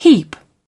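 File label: "heads", British English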